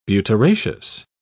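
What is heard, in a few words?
"butyraceous", English, British English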